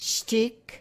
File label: "shtick", yiddish